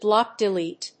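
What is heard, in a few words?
"block delete", English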